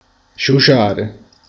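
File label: "soffiare", Italian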